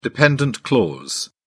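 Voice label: "dependent clause", British English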